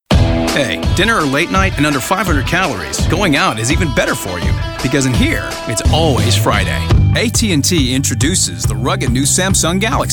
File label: English US, M, Commercial, american